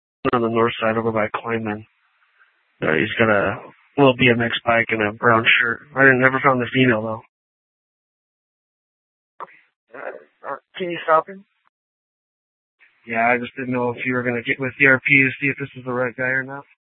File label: Police Department, Dispatch; TOPAZ Regional Wireless Cooperative (TRWC) - Mesa Simulcast; 1 Fiesta Dispatch